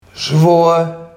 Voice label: "zwaor", Barghs

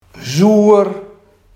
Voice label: "zoer", Barghs

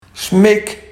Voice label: "smik", Barghs

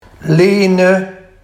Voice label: "lene", Barghs

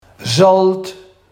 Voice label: "zalt", Barghs

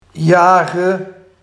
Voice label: "jage", Barghs

